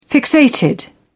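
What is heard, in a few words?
"fixated", British English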